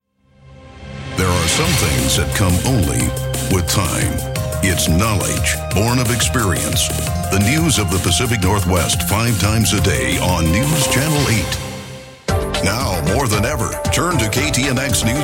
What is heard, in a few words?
Imaging Male